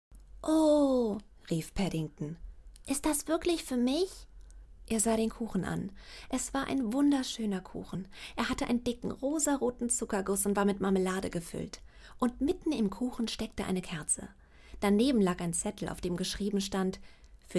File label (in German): Roman Kinderbuch Deutsch; PADDINGTON